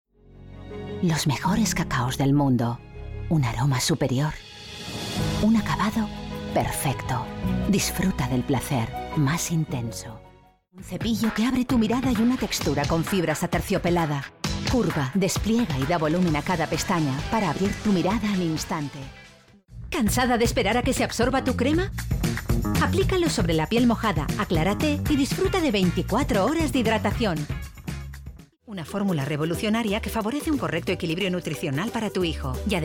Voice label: spanish commercial; Spanisch; Spanien